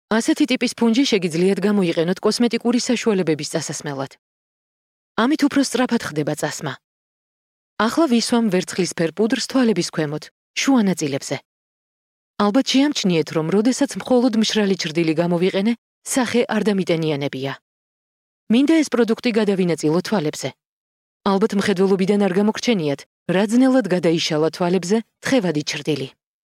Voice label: Georgian Female